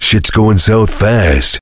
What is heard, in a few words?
heavy negative vocalization; tf; duke